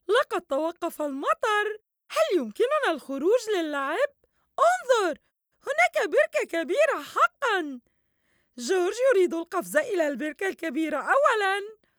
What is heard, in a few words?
Cartoon